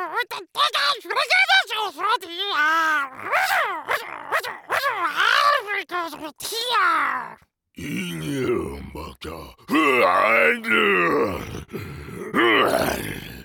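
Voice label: animation men creatures, voices men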